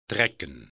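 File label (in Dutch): "trekken", Dutch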